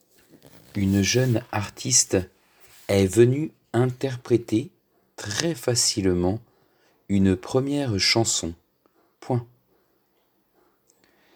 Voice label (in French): Dictée flash